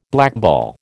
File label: "blackball", American